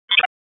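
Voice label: Police District Dispatch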